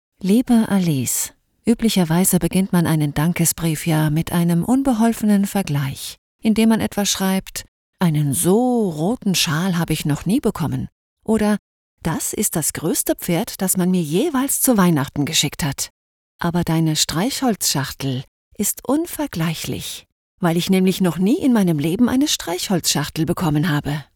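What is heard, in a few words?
German, female, German Audiobook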